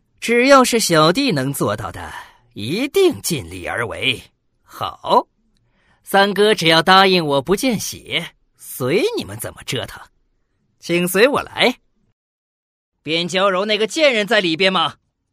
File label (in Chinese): 男 角色 年轻富家公子; 年轻多变 素人自然; 男WX-角色-年轻富家公子